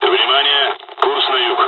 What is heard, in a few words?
dialogs russian; War Thunder